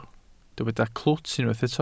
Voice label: "clwt", Welsh

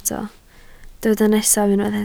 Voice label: "nesaf", Welsh

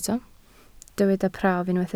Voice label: "prawf", Welsh

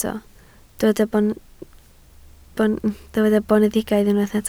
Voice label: "boneddigaidd", Welsh

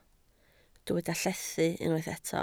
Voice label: "llethu", Welsh

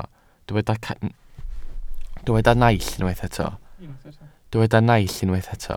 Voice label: "naill", welsh

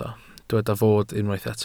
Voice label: "fod", Welsh

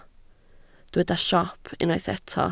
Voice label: "siop", Welsh

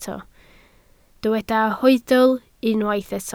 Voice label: "hoedl", Welsh

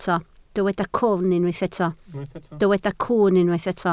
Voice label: "cwwn", Welsh

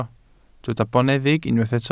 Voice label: "boneddig", welsh

